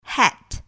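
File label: "hat", English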